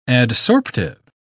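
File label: "adsorptive", British English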